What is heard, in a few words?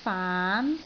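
"faan2", Cantonese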